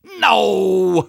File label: negative vocalization; Soldier